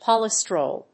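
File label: "polystyrol", English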